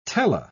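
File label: "tele-", British English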